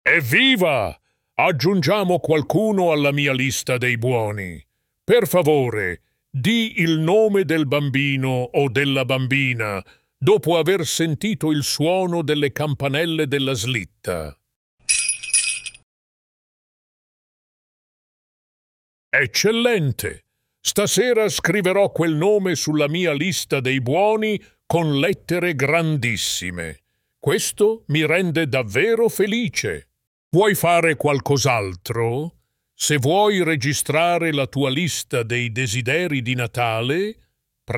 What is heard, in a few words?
voicemail, italian